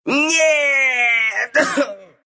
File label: lose, ru